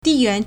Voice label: "di4 yuan2", Chinese